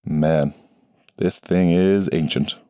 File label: (Voices) Broken Actionfigure; Scorch